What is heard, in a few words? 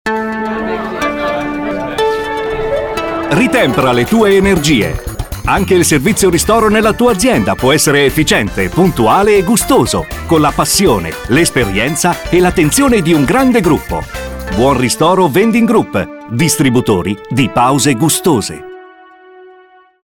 spot; BuonristoroRC